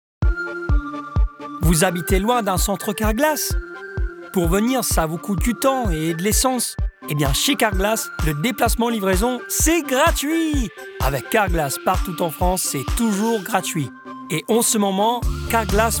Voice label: male italian french commercial